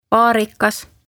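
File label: "vaarikas", Estonian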